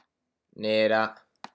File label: "nera", pronunciation wine words